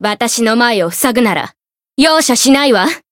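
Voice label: win, Japanese; kikyou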